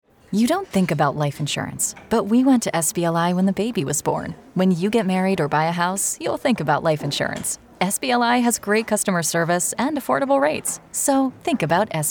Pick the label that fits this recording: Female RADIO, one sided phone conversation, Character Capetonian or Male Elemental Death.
Female RADIO